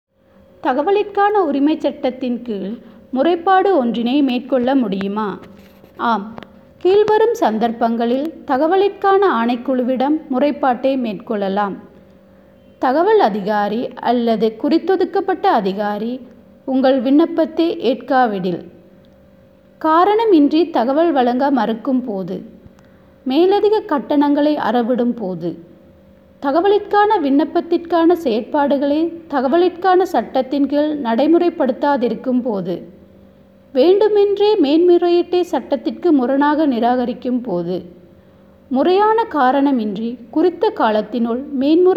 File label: Tamil